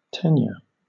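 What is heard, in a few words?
"tenure", English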